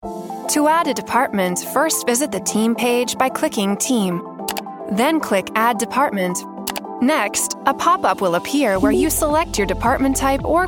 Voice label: Step By Step Screencast How To Tutorial for a Workflow Interface, e-learning; eLearning Voice Over; Corporate, Authoritative, Helpful - Software Tutorial